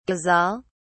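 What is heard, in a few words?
"ghazal", British English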